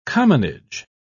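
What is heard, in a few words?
"commonage", British English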